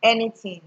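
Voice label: "anyting", Nigerian Pidgin, female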